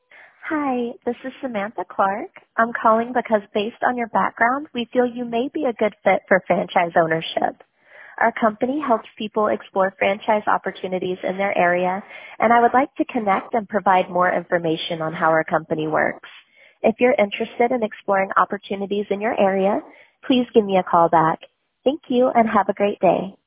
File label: voicemail franchise